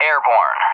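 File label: "airborne", English; DCS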